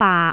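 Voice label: "baak6", Jyutping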